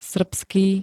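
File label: "srbský", Slovak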